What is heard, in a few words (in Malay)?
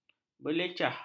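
"berlecah", Malay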